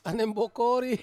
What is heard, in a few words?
"aneng bokori", Ansus; potbelly